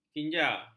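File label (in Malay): "kinja", Malay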